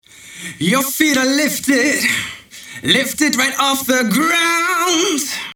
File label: Slap delay, vocal